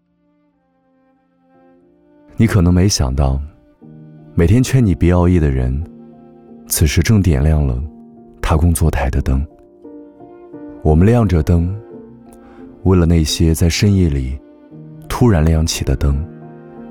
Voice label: 男 旁白 温柔理性

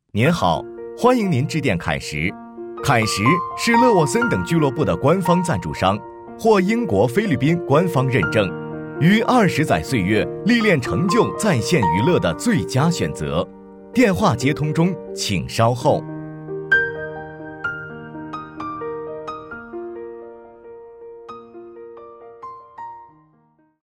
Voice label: 男 彩铃

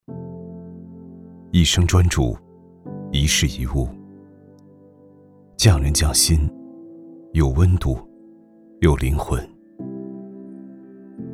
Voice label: 男 旁白走心; 一生专注

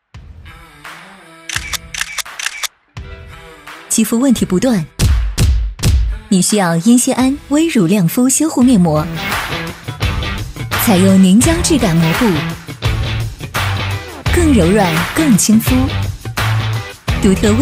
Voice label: 女 化妆品; 蔓丝优娜

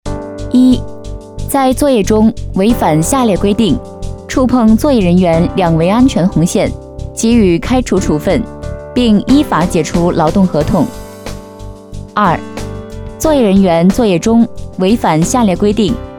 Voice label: 女 汇报

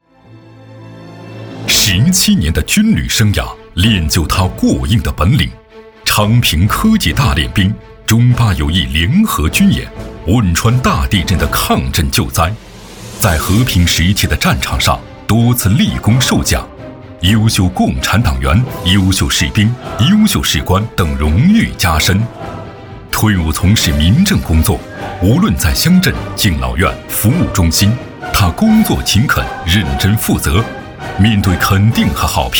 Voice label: 男 专题 军队 片 大气严肃; 军旅生涯

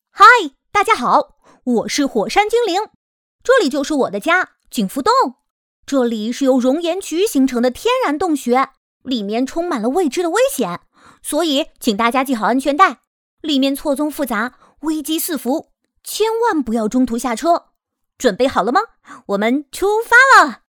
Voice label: 女 童声 男童; 火山精灵

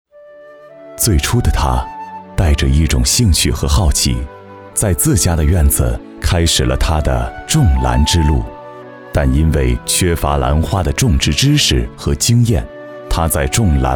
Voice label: 男 人物介绍 温暖; 种兰之路

